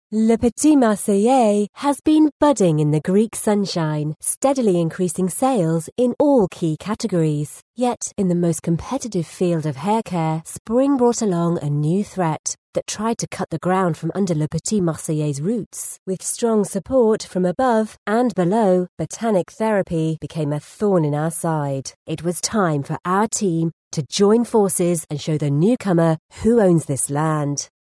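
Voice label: English, female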